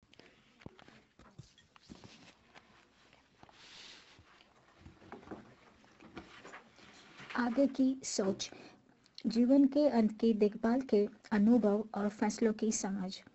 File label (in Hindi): flyer hindi